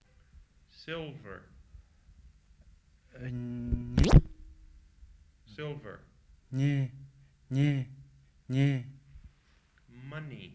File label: Sharchokpa Chas from Bhutan